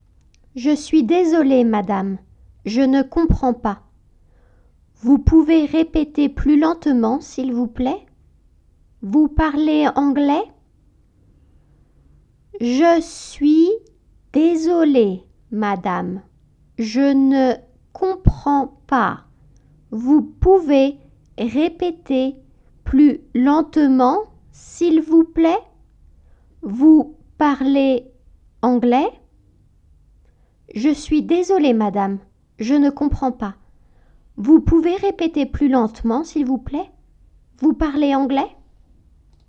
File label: French